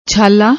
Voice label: "chhalla", Punjabi; ਛਾਲਾ

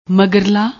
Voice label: "magarlla", Punjabi; ਮਗਰਲਾ

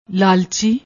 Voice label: "laalchi", Punjabi

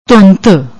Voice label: "dhundh", Punjabi; ਧੁੰਧ; دھُندھ; noun, feminine; fog, mist

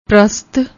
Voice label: "prast", Punjabi

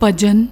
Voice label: "bhajan", Punjabi

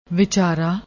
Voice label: "wichara", Punjabi; ਵਿਚਾਰਾ

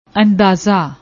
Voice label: "andaza", Punjabi; ਅੰਦਾਜ਼ਾ

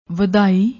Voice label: "wadai", Punjabi

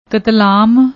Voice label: "ktlam", Punjabi; ਕਤਲਾਮ

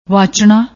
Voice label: "wachna", Punjabi; ਵਾਚਣਾ

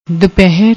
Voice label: "dupehar", Punjabi

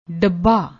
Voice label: "dabba", Punjabi

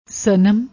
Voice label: "snm", Punjabi

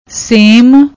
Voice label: "seam", Punjabi; ਸੇਮ

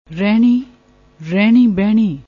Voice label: "rehni", Punjabi

